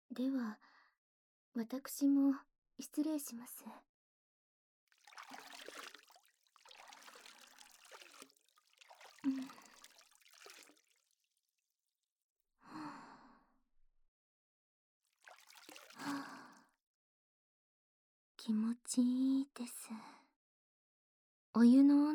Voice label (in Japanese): 『一緒にお風呂に入りましょう』, ASMR, 環境音無し